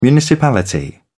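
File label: "municipality", British English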